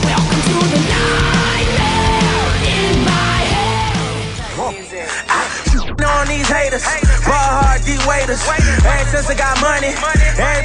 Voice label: Music Promo